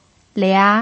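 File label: "lia", Mandarin Chinese pinyin, tone 2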